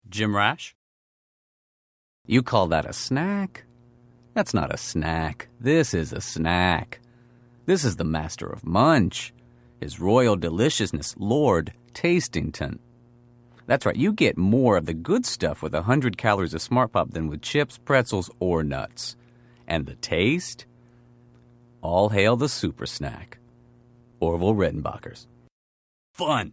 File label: commercial; Innovative LA